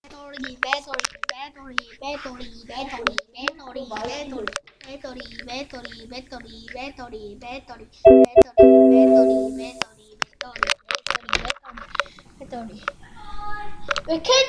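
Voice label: "battery", spoken word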